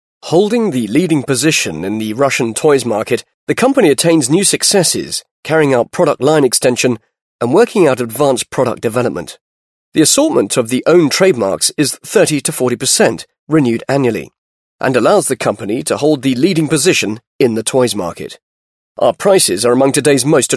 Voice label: british english male

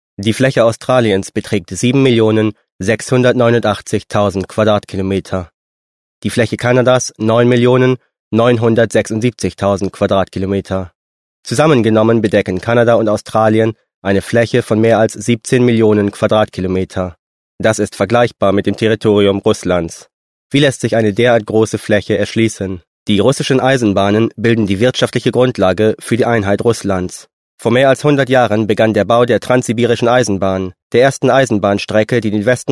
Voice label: german male

